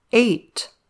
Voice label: "eight", English

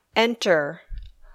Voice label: "enter", English

